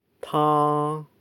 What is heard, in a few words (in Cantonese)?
"taa", Cantonese